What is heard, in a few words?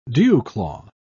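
"dewclaw", British English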